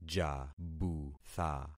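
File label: "jabutha", concatenated syllables; Affixing experiment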